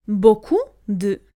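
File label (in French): "beaucoup de", French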